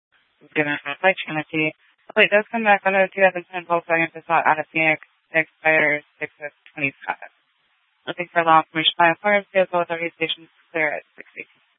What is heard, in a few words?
Sheriffs Office, scanner